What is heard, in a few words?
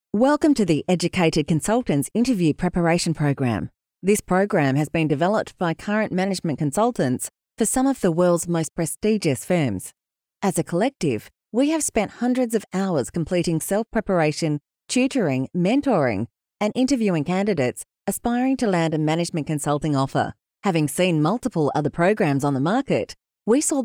Elearning intro